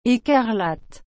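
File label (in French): "écarlate", French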